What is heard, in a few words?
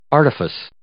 "artifice", English